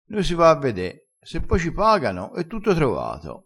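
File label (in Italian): "e tutto trovato", vocabolario dialettale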